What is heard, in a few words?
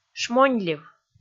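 "шмоньлив", Komi, female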